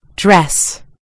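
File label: "dress", American English; gcompris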